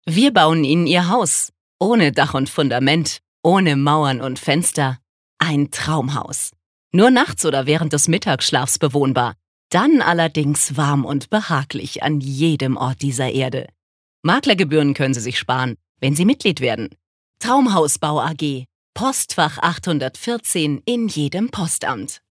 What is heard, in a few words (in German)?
Werbung Freundlich